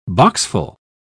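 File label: "boxful", English, British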